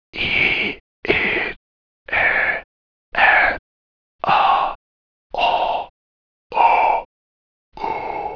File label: whispered